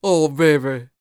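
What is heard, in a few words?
dialog, female spoken; ILIO; Vocal Planet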